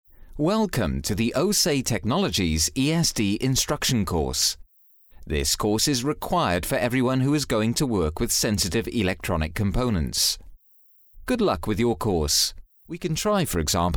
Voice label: British Voice Male eLearning

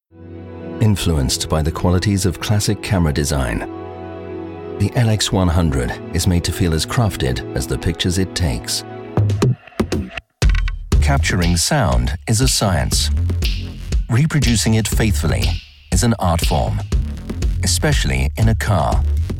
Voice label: Commercial British Male, Warm, Deep; TV and Radio, eLearning, Narration, International Voiceover

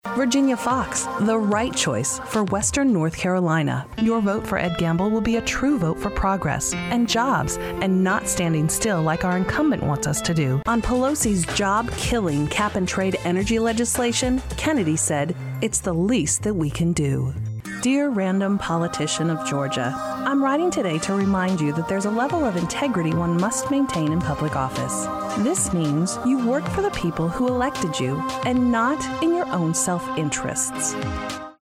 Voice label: Political Female; Political Campaigns

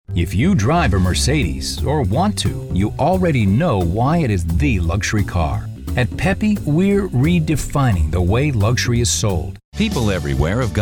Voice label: COMMERCIAL; commercial voiceover

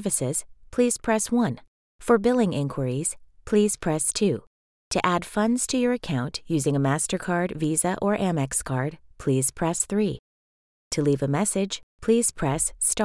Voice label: IVR Female, Narration, Phone Hold/IVR; Friendly, conversational, warm, articulate

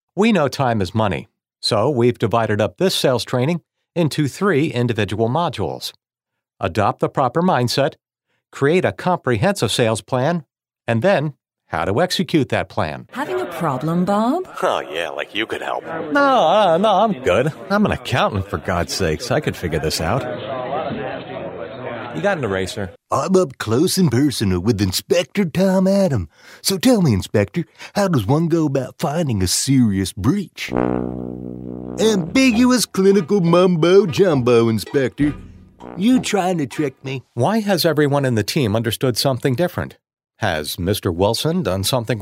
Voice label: eLearning Male

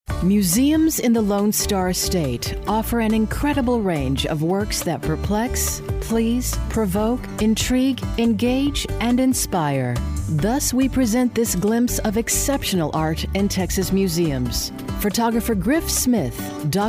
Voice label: Narration Female

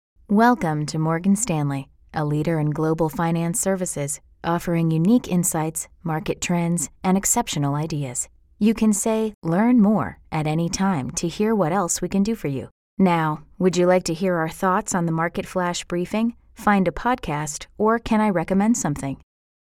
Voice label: eLearning Female; Narration, eLearning; Friendly, conversational, warm, articulate

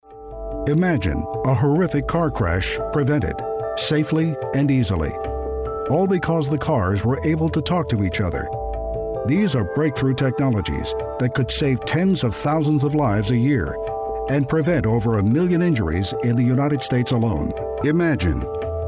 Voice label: Narration Male, Corporate, Documentary

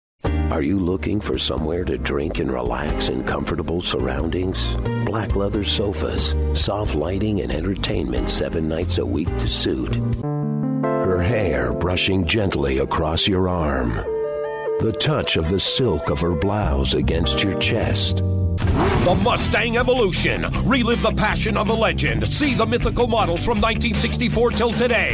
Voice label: Commercial Male

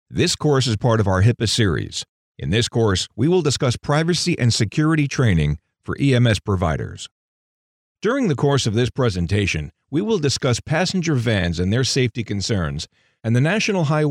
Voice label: eLearning Male

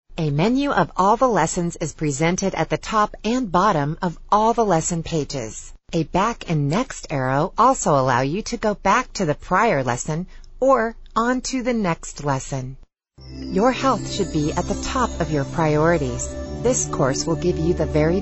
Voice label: eLearning Female; Narration, eLearning, Corporate, Documentary

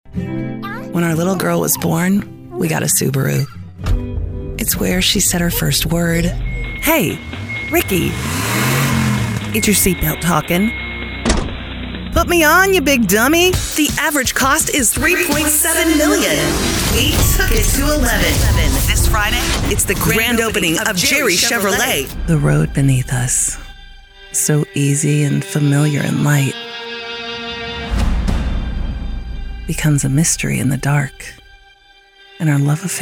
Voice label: Auto Dealer Female, commercial voiceover

